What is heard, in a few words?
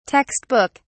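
"text book", British English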